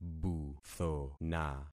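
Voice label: "buthona", concatenated syllables; Affixing experiment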